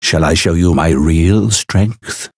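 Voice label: encounter fear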